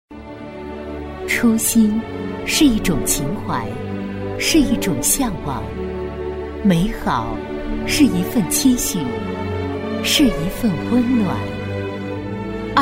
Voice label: 女 颁奖配音 大气温暖; 嘉定区青少年活动颁奖; 甜美知性; 沉稳大气

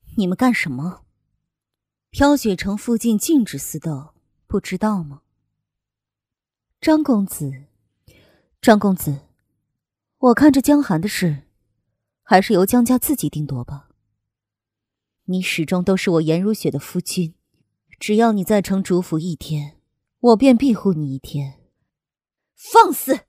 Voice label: 女 角色 御姐系列

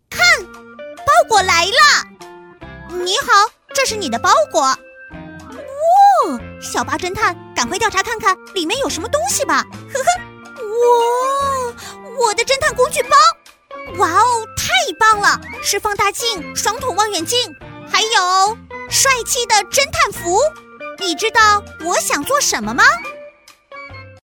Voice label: 女, 童声, 卡通男孩

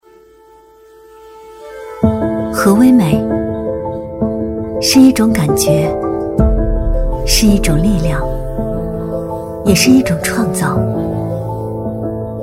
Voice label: 女 高端广告 高端柔和; 极美伦

